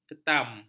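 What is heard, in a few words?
"ketam", Malay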